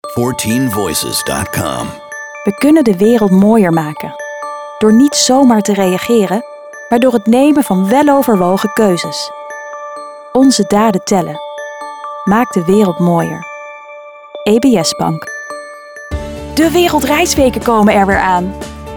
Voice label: Commercials; 14Voices